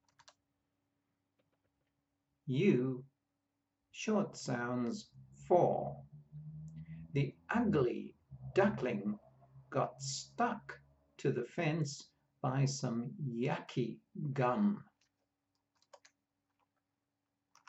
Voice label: U short sounds, pronunciation of australian english